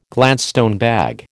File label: "Gladstone bag", American